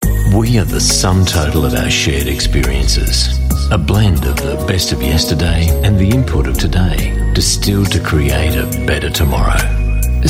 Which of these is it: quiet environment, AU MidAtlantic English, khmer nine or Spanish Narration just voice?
AU MidAtlantic English